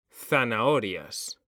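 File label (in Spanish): "ZANAHORIAS", Spanish, male; mekanta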